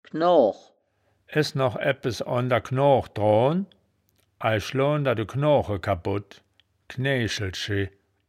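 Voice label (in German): "knooch", wittlicher woerterbuch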